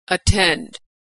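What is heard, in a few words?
"attend", American English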